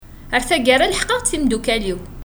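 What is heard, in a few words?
"Ɣer tagara leḥqeɣ-d timdukal-iw.", Kabyle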